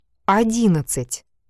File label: numbers in Russian 11